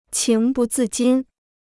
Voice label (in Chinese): "情不自禁", Chinese